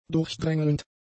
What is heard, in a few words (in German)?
"durchdrängelnd", German; adjectives